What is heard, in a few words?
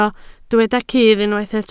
"cudd", Welsh